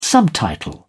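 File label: "subtitle", British English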